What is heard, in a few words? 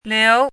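"liú", Mandarin Chinese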